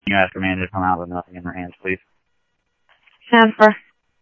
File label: Tempe Police, scanner